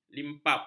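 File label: "limpap", Malay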